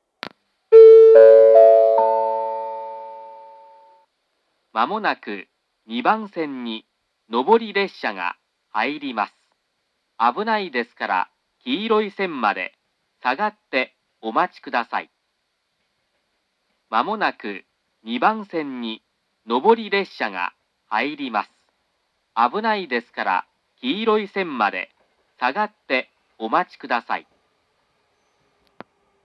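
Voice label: platform 3 approaching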